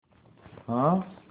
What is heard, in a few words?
Voice message